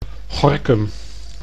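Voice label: "Gorkum", Dutch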